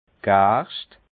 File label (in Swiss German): "gerscht", alsace